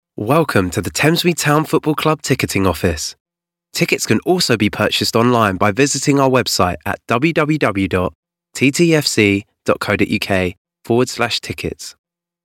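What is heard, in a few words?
estuary voice telephone, Estuary English Male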